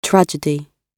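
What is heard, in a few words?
"tragedy", British English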